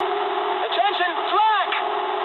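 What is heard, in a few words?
English damaged aa mood low; War Thunder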